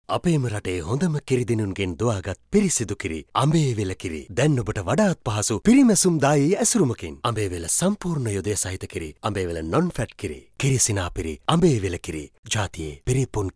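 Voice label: Sinhala male voice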